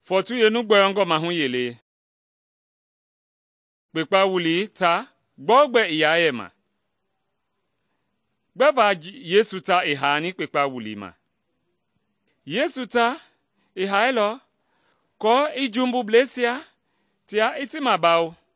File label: mende bsl nt drama; good news mende kpa